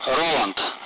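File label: Speech Sound Russian Common Allied Flight; AirDefence; Roland; DCS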